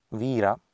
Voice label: "viira", Sanskrit